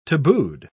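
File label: "tabooed", British English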